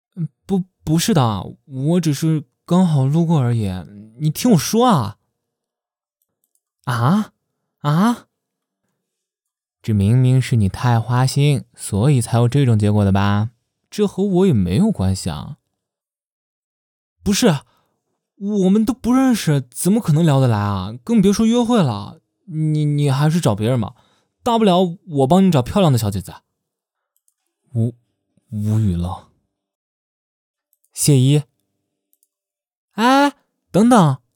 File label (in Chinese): 可爱风